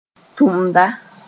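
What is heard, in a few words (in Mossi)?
"tʋmda", Mooré; worker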